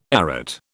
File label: "arête", British